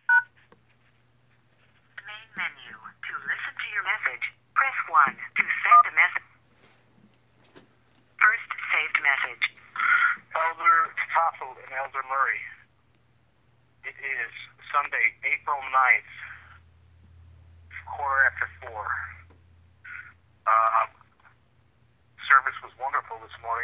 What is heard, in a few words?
memo voice